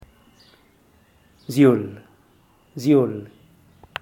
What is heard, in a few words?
"ziuull", sin aliento, zapoteco teotitlan